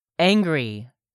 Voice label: "angry"; 3000 words

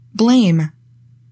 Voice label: "BLAME", english; 3000 words

